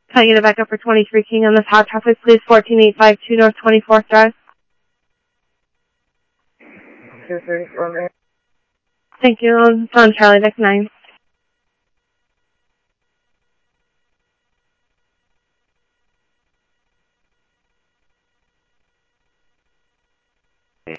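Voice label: police department, scanner audio; PHOENIX PD-200 A; ThompsonPeakHigh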